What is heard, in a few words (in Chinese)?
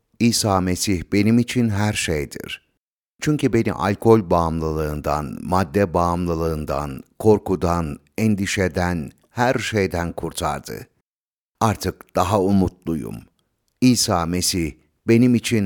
土耳其语配音